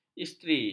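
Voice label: "isteri", Malay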